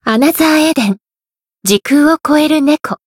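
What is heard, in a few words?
Voice title, Japanese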